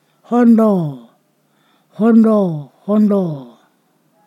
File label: "slipperiness", Ponosakan